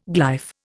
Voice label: "glyph", Indian